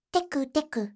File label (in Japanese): "tekuteku", voice